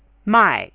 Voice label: "mai4", Chinese; Spoken Chinese; Shopping